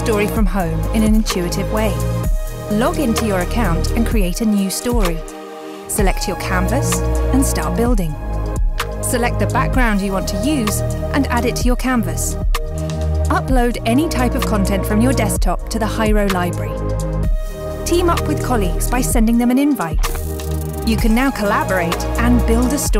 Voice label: UK Storytelling; Hyro; Software

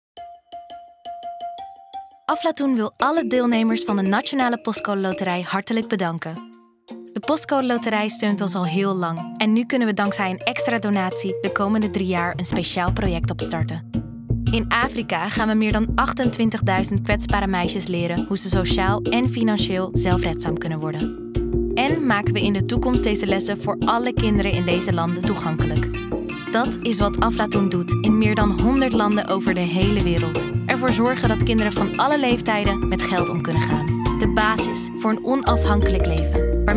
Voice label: NL; Aflatoun Nederland

